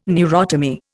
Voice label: "neurotomy", Indian